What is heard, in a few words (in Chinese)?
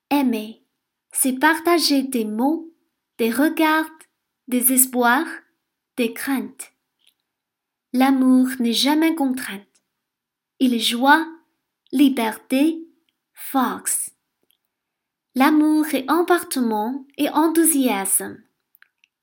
抒情短文法语